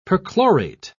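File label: "perchlorate", British English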